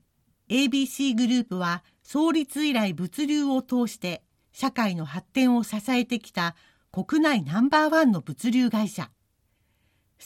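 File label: Japanese, Corporate; GoLocalise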